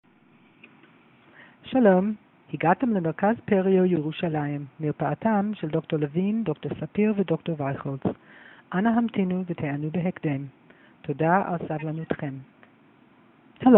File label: call recording